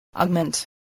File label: "augment", english